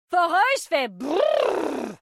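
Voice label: French; 探奇（守望先锋2）; 终极技能; Foreuse fait brrr !; 法语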